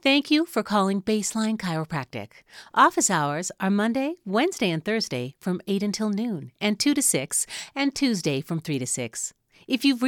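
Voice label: English US, female, IVR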